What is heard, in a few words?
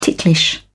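"ticklish", British English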